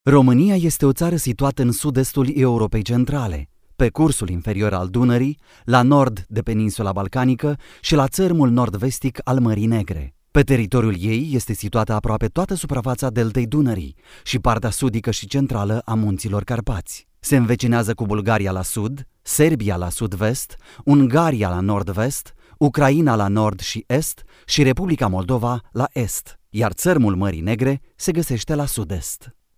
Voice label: romanian male